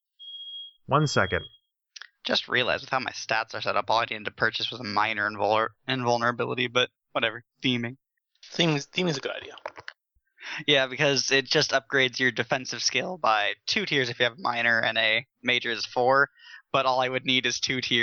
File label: Side Chatter; oneoffs; sidetalk; Base Raiders; Boiling Point; High Power